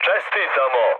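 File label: Serbian player killed enemy mood med, speech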